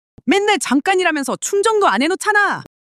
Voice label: anger